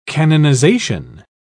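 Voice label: "canonisation", British English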